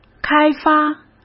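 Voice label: "开发", Mandarin Chinese; hsk; chinese-flashcards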